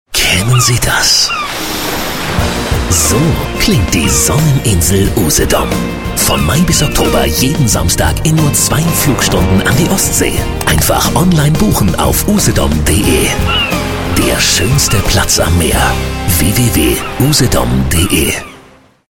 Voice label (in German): Funkspot; Usedom